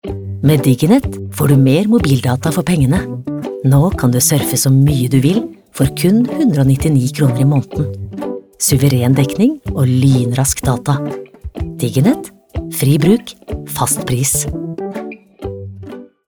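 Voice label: Norwegian reklame soft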